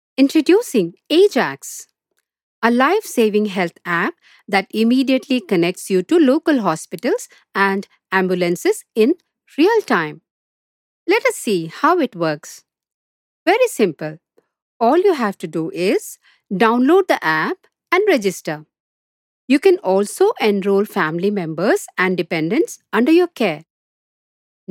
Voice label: ENGLISH NARRATION

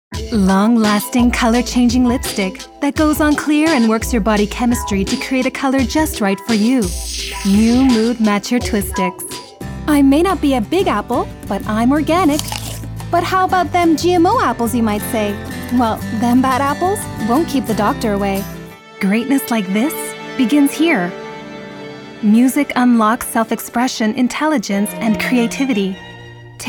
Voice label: commercials

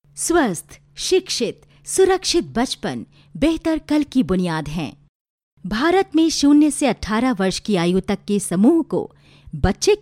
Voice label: narration hindi, Female; URDU

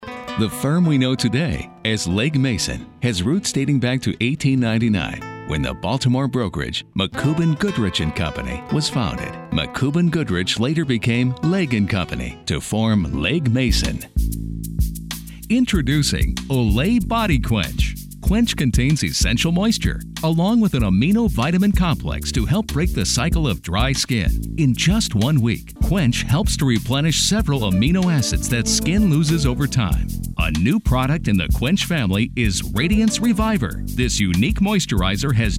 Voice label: Narration

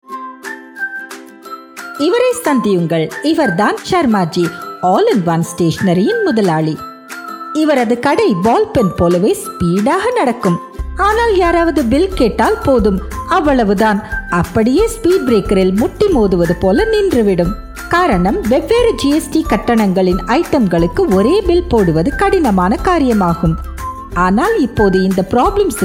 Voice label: tamil; casio gst calculator

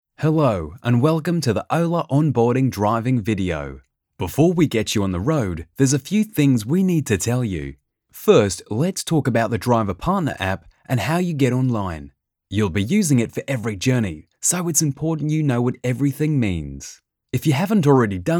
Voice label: Australian

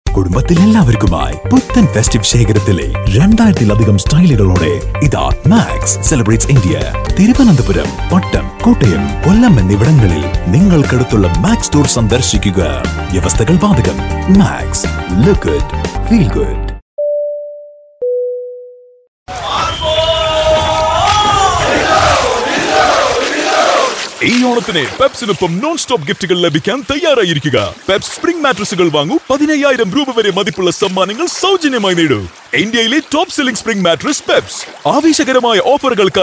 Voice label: Malayalam

